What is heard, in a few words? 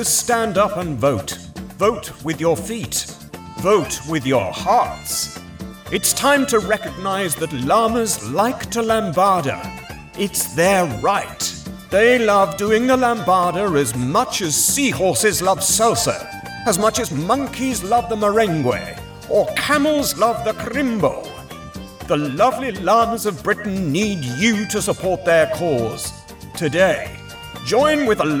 Political Party Character Voice